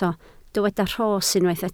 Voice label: "rhos", Welsh